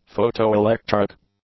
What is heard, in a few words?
"photoelectric", english; adjectives